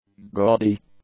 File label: "gaudy", English; adjectives